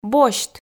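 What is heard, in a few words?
"босьт", Komi, female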